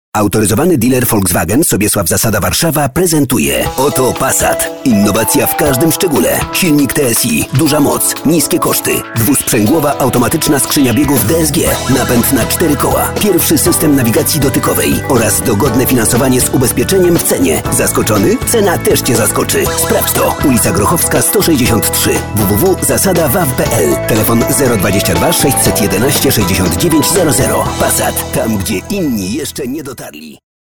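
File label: Polish, male, commercial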